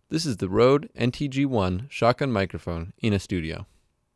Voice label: NTG-1